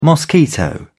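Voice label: "mosquito", British English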